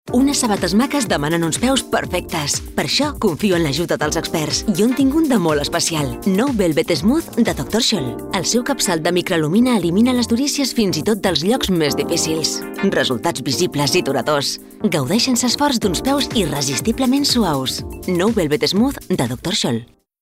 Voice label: female catalan shoes comercial; ideasonora